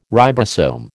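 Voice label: "ribosome", American English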